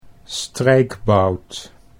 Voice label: "strijkbout", Dutch

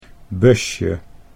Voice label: "busje", Dutch

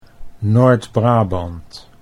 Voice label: Noord Brabant, Dutch

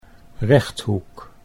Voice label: "rechthoek", Dutch

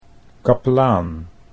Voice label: "kapelaan", Dutch

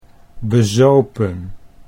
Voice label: "bezopen", Dutch

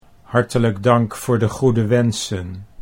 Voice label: "hartelijk dank voor de goede", Dutch

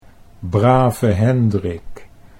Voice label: "brave Hendrik", Dutch

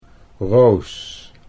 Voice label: "roos", Dutch

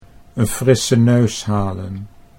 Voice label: "n frisse neus halen", Dutch

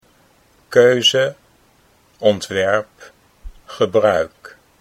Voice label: keuze ontwerp, Dutch

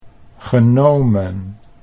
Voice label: "genomen", Dutch

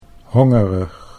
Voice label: "hongerig", Dutch; 'hungry'

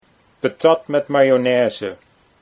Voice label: "patat met mayo", Dutch

